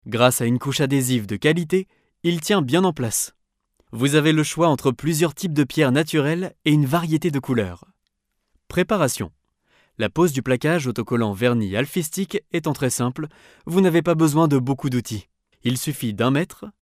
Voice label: locutor frances french voiceover